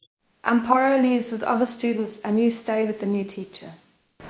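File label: Voice Acting Spanish Female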